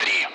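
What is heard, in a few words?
Speech Sound Russian Common Allied Flight; Digits; DCS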